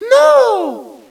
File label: nooo, Echo inside caves, underground; DS; ML BOWSERSINSIDE; Mario